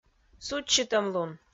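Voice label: "судзсьытӧмлун", Komi-Zyrian, female; audio dict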